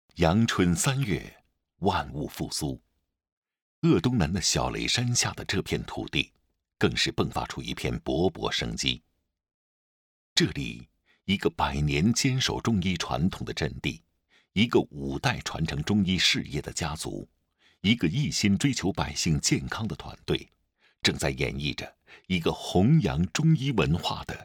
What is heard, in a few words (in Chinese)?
宣传片; 瑞云堂